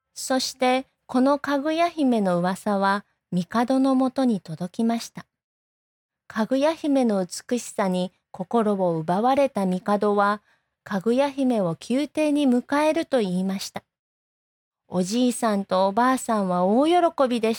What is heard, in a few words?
Japanese